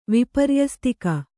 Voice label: "ವಿಪರ್ಯಸ್ತಿಕ", Kannada

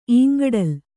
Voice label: "ಇಂಗಡಲು", Kannada

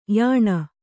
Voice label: "ಯಾನ", Kannada

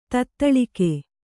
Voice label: "ತತ್ತಳಗೈ", Kannada

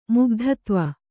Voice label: "ಮುಗ್ಧತ್ವ", Kannada

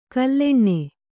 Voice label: "ಗುಲ್ಲನೆ", Kannada

